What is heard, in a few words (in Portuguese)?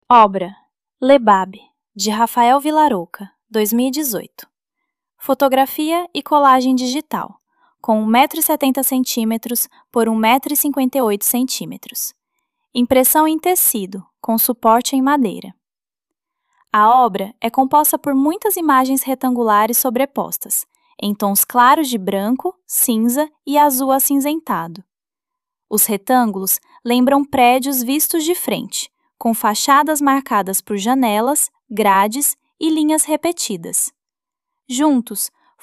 Audiodescricao; obra Lebab